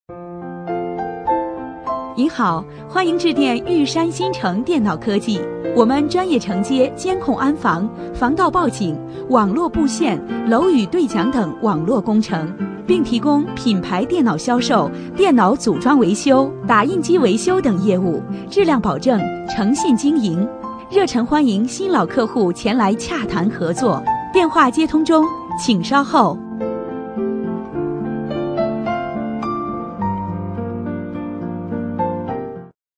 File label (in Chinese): 女 彩铃